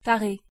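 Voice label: "tare" French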